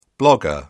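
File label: "blogger", British English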